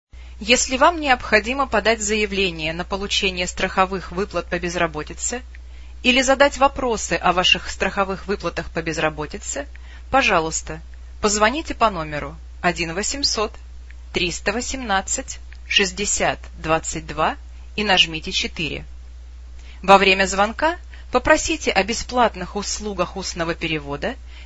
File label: russian